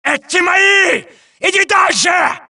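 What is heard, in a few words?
suppress, dialogue, Allies; mohaa; Mission 3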